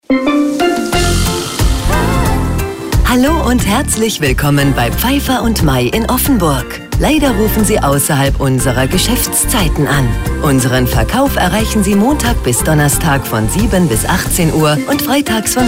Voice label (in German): telefonansagen; pfeiffer und may